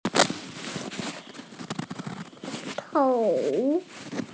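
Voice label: "chou3", Mandarin Chinese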